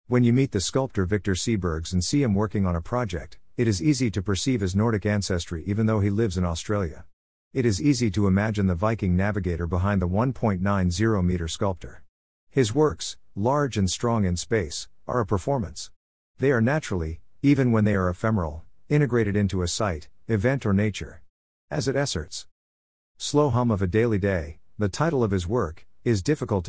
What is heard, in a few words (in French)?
anglais